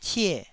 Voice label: "cie4", Taiwanese

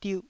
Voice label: "diuh2", Taiwanese

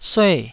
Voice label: "sue4", taiwanese Syllables

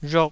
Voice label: "rhok2", taiwanese

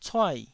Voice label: "cuai4", Taiwanese syllable

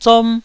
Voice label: "zom1", taiwanese

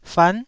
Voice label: "fan9", Taiwanese

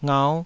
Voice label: "ngau5", taiwanese syllables

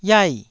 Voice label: "iai4", taiwanese syllables

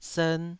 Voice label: "sern2", taiwanese

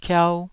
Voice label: "kiau2", taiwanese syllables

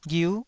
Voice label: "ghiu9", Taiwanese syllable

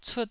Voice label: "cut1", Taiwanese syllable

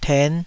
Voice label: "ten5", Taiwanese

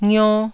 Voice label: "ngio1", Taiwanese syllable

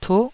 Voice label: "tuh9", taiwanese

